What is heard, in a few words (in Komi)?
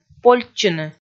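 "польдчыны", Komi, female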